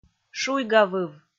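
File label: "шуйгавыв", Komi, female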